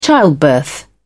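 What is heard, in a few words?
"childbirth", British English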